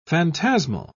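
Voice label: "phantasmal", English, British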